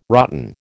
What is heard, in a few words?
"rotten", American